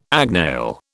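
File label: "agnail", British English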